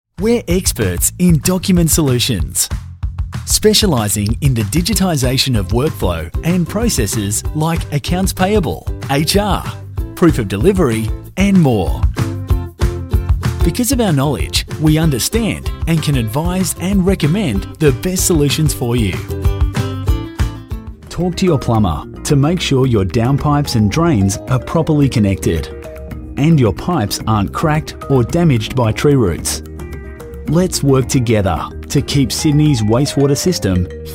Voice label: corporate

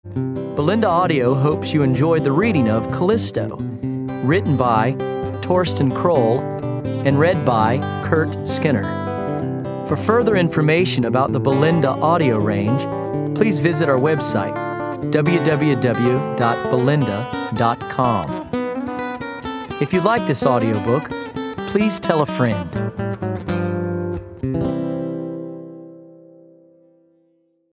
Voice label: narration; EM Voices

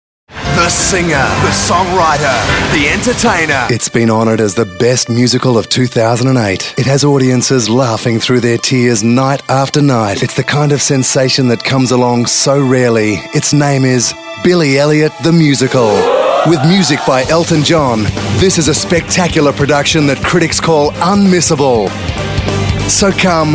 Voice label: promos; EM Voices

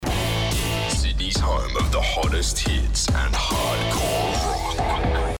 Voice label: radio promo

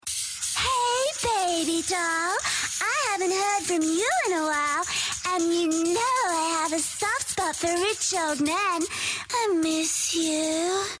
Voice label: Promo character; 2Day FM

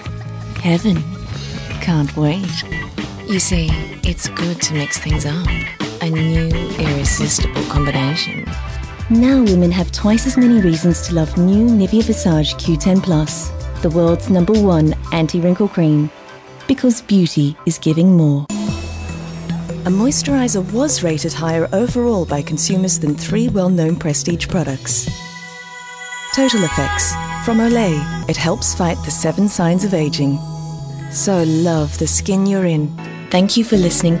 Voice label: soft, voices

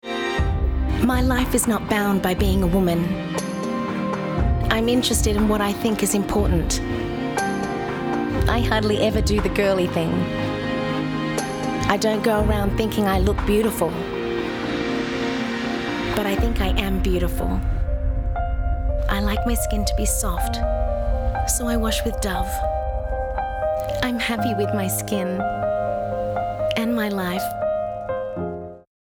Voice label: Commercial

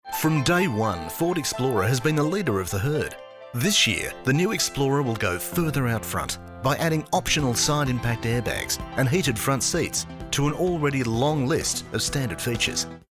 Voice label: Gentle Retail; Ford Explorer

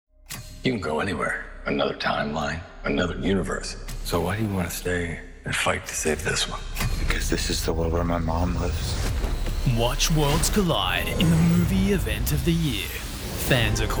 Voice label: FILM PROMO ATMOSPHERIC; THE FLASH

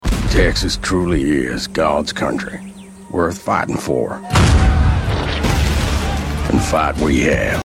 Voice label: Texas

